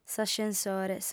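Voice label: "s'ascensore", female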